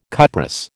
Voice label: "cuprous", American